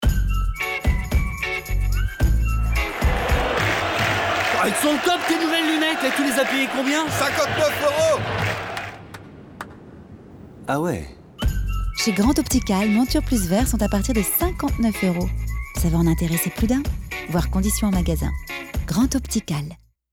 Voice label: RADIO STADE